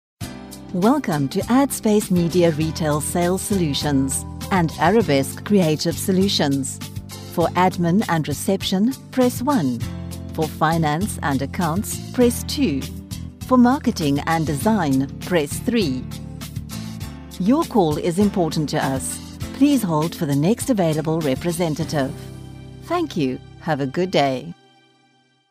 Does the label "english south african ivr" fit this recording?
Yes